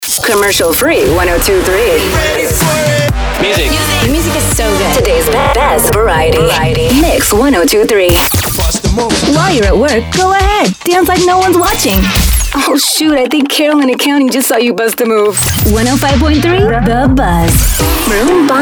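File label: Hot AC Imaging, Female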